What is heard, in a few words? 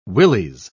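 "willies", British English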